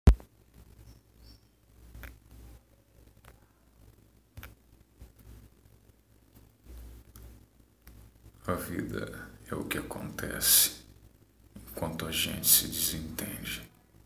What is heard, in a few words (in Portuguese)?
declamacao